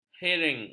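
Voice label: "hering", Malay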